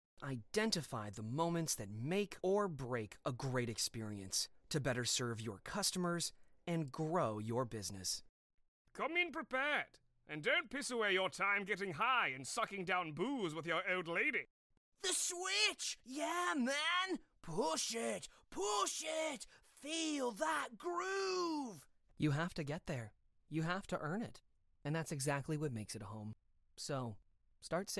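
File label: commercial character vo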